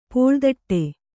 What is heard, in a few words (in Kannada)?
"ಪುಳಿದೊಡೆ", Kannada